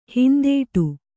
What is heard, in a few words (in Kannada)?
"ಹಿಂದಡಿ", Kannada